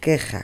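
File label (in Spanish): "queja", Spanish, Galicia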